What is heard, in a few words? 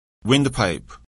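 "windpipe", English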